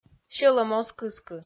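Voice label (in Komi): "сьӧлӧм оз кыскы", female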